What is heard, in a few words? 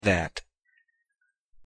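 "that", English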